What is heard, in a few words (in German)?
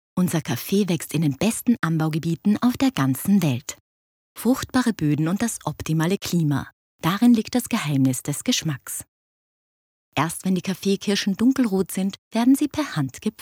Vertonung; REGIO